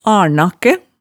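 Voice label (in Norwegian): "alnakkæ", Hemsedal dialect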